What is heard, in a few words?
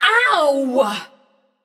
ouch passion; chaac